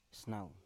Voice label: "snau" to scratch, Biak